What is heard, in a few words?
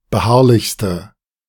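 "beharrlichste", German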